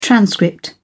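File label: "transcript", British English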